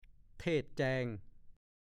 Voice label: "เทศน์แจง", ภาษาโคราช; พระเทศน์ทำวัตรเพื่อซักซ้อมการสวดให้พร้อมกันและเป็นแบบเดียวกัน

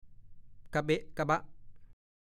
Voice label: "กะเบ้ะกะบ้ะ", ภาษาโคราช; 1. เบ้ปากร้องไห้ ร่ะเบ้ะร่ะบ้ะ ก็พูด

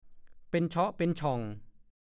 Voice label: "เป้ง", ภาษาโคราช; ว. ใหญ่ เช่น แม่เป้งมดแดงเอามาทำเมี่ยงได้; ต้นไม้ชนิดหนึ่ง คือ ต้นเป้งใช้ทำไม้กวาดได้

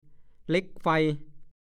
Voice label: "เหล็กไฟ", ภาษาโคราช; ไฟแช็กที่เวลาใช้ต้องให้จักรโลหะครูดกับถ่านก้อนเล็ก ๆ กลมสั้น

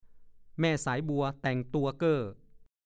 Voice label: "แม่สายบัวแต่งตัวเก้อ", ภาษาโคราช; (สำนวน) แต่งตัวเสร็จแล้วไม่ได้ไปเพราะคนนัดไม่มา

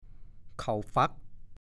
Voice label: "เข่าฝั๊ก", ภาษาโคราช

